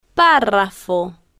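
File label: "parrafo", Spanish, female, slow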